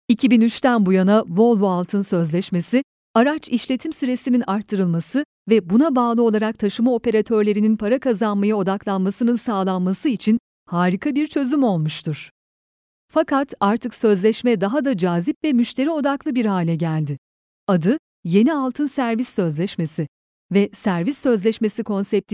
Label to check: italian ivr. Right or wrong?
Wrong